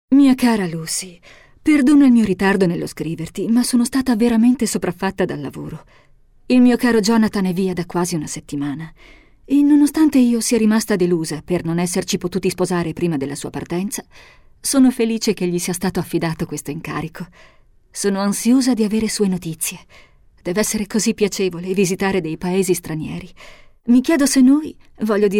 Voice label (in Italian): narrativa